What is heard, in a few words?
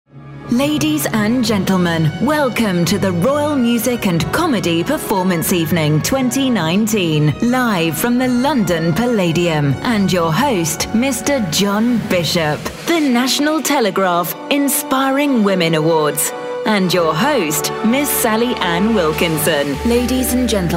voice of god